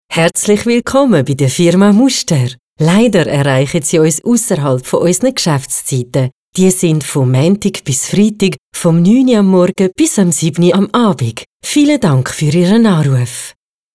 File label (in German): Telefonansage noch frischer; Telefonansage „er-frischend“